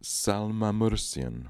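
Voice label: "Salmamoorsian", pronunciations; Fourth Way; Beelzebub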